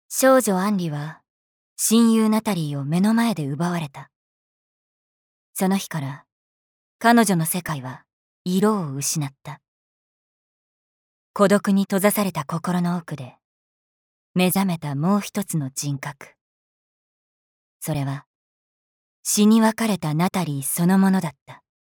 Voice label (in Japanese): ナレーション